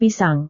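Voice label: "pisang", Indonesian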